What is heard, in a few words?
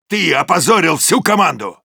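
Soldier jeers, russian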